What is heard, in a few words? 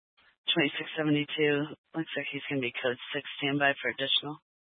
Incident Tac 3, scanner